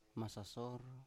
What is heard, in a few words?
"masasor", Biak